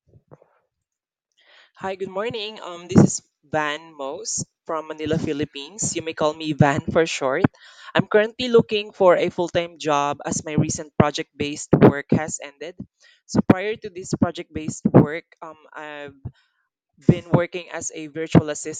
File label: Voice Recording, Self Introduction; Real Estate Transaction Coordinator